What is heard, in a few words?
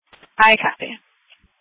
Police Dispatch